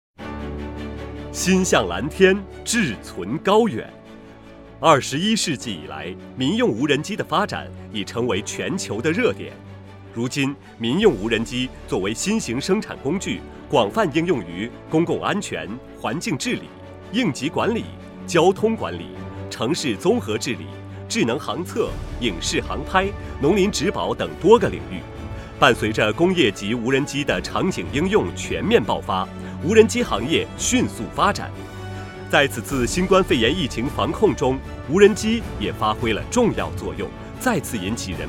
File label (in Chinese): 大气宣传片